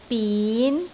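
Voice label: "bin2", Cantonese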